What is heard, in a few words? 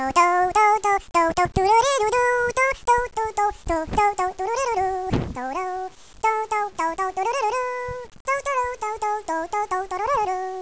children chatter; valve